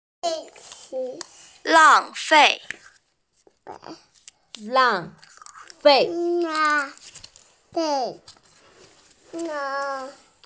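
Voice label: "lang fei", Mandarin Chinese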